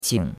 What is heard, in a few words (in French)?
"qing3", chinois; Cours-de-Chinois